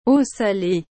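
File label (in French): "eau salée", French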